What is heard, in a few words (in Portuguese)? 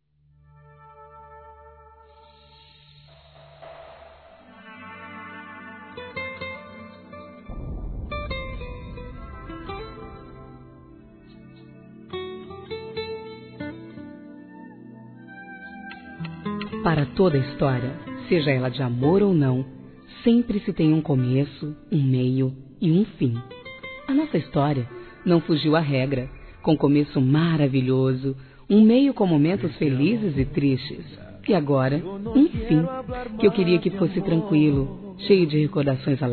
Romantica Fim De Namoro Feminino, Telemensagens; Românticas